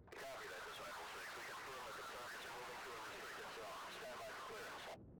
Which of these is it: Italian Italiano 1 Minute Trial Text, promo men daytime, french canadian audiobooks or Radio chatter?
Radio chatter